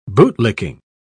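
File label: "bootlicking", British English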